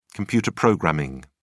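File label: "computer programming", English, British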